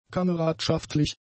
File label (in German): "kameradschaftlich", German; adjectives